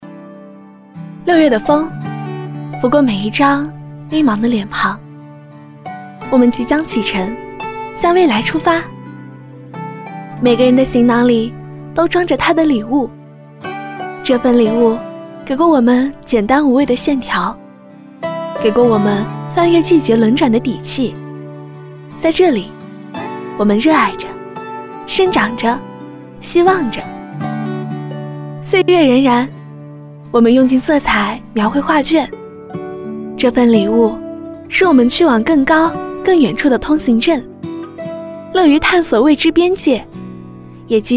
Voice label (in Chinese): 女 独白; 抖音